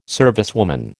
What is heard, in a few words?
"servicewoman", American